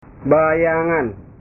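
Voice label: "bayangan", Indonesian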